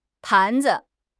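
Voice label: "pan2 zi5", Chinese; HSK; HSK3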